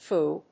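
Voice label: "phoo", English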